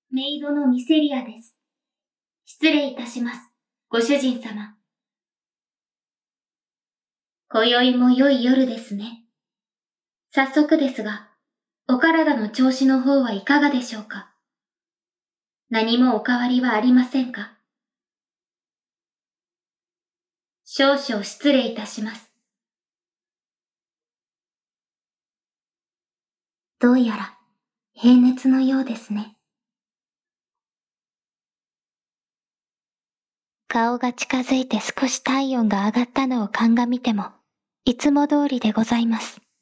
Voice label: バイノーラル介護

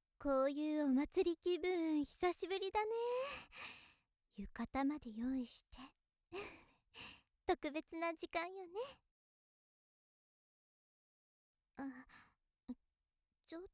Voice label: 優しいお姉さん お祭りだよ

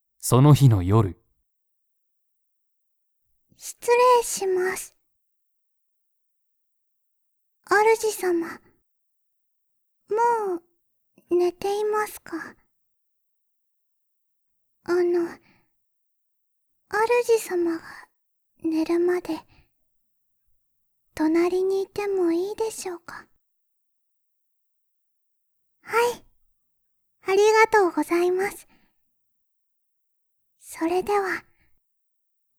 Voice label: 添い寝; ベルの添い寝

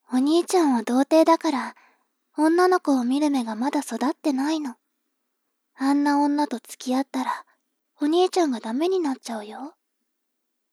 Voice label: 妹 台詞

